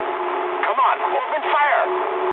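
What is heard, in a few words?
English attack position mood high; War Thunder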